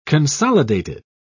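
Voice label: "consolidated", English, British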